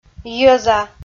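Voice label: "йӧза", Komi, female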